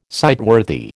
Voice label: "sightworthy", American